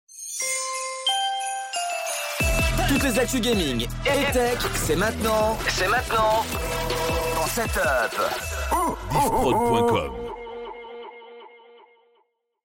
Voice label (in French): Jingle Chronique Actu Noel